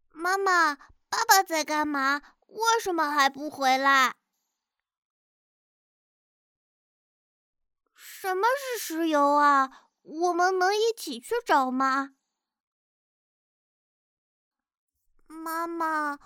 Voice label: 正太音

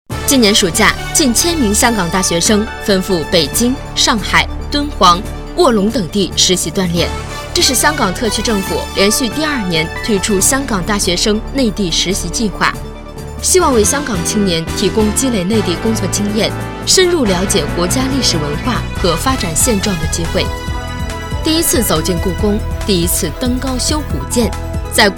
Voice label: 新闻; 香港计划

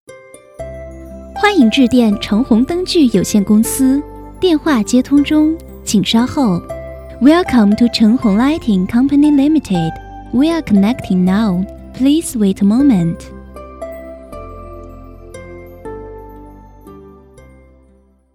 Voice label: 英语彩铃中英

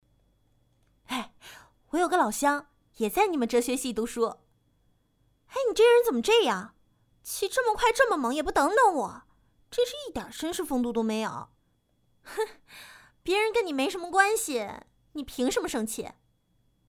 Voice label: 成女

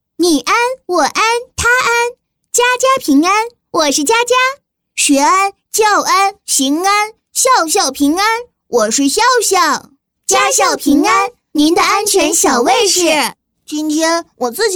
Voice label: 男女童; 危险的浴室

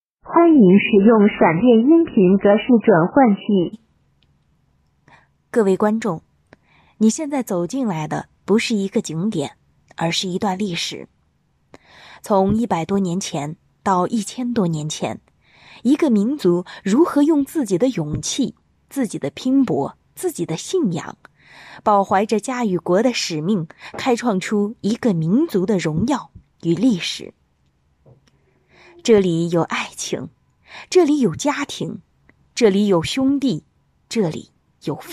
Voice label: 话剧旁白纯干音无修饰

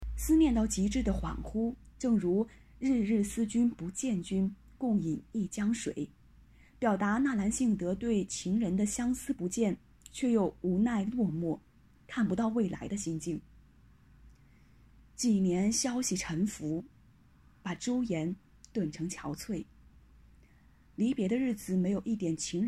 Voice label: 读后感电台风格

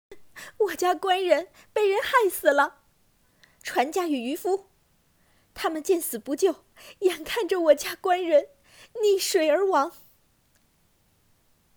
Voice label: 人物角色配音

